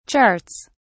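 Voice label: "charts", British English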